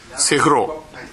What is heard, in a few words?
"sefuro", minamiyamagata